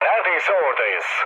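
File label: Turkish near waypoint, mood low, speech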